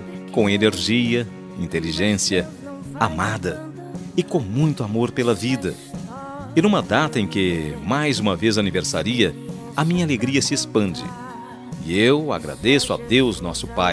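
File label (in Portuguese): aniversario evangelico para filha masculino, telemensagem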